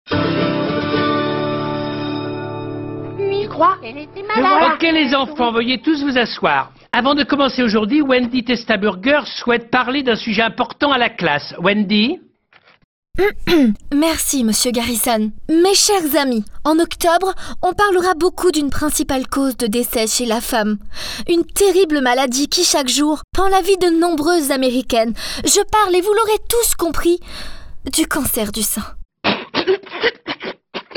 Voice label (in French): voix off; south park